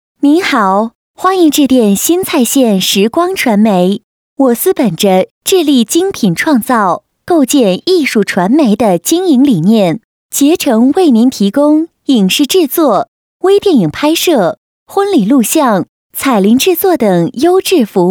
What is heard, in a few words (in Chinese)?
女 彩铃, 国语女声配音; 拾光传媒